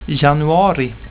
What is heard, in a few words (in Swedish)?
"januari", swedish; tijden